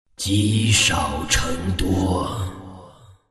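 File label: levelup, chinese; dota2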